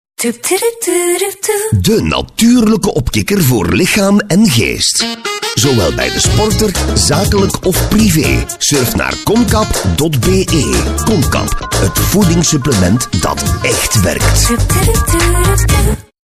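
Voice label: flemish male commercial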